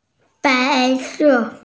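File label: "white", hearing impaired; bai2se4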